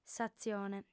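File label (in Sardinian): "s'atzione", female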